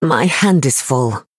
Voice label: Female High Elf ERROR HAND FULL

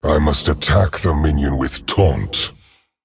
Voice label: Male Dragon ERROR TAUNT

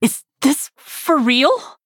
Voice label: Nonbinary Night Elf Victory

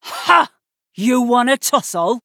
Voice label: Female Human Play

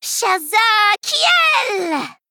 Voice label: Female Gnome Hero Power

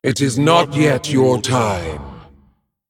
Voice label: Female Dragon Play

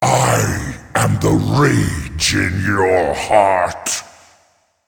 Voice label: Male Demon; Mannoroth; Thrall

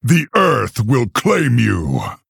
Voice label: Male Orc Threaten

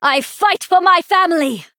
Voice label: Female Night Elf Greetings

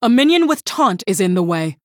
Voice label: Female Human error taunt

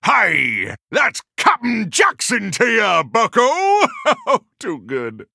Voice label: Male Human PIRATE DAY

